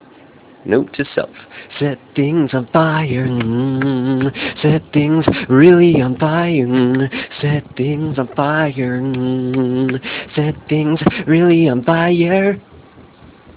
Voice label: Recorded Message